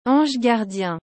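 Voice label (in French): "ange gardien", French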